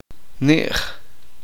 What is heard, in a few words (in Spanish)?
uspanteko algunos